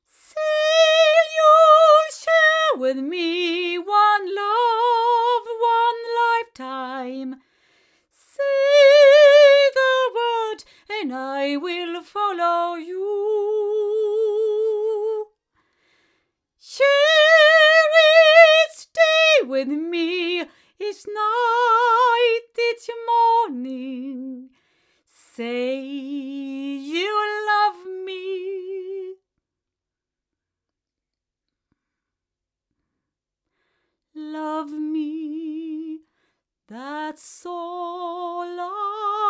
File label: back female; CT NakedEye; crowley tripp